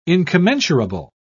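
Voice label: "incommensurable", British English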